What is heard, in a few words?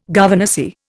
"governessy", Indian